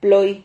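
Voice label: "ploi", Spanish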